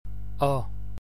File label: open o, unstressed у (Donegal), gaelic